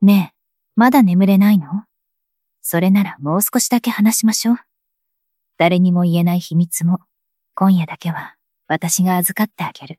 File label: anime voice